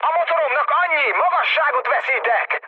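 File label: Hungarian damaged engine mood med, speech; War Thunder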